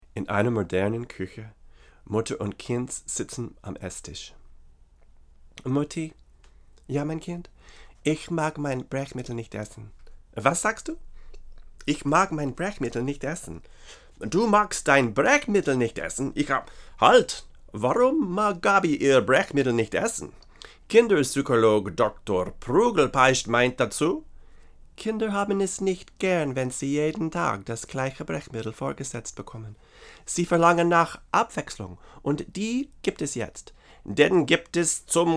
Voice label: VO, Neumann KM88; Voice English / German / Latin; cardioid